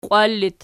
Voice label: "qiwal", Archi